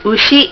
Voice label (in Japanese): "ushi", Hiragana